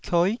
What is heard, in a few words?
"kioi9", taiwanese syllables